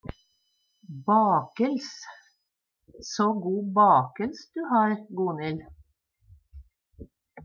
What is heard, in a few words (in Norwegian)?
"bakels", Numedalsmål; So go bakels du ha, Gonhil.